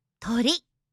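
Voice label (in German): "Tori", japanische Mädchennamen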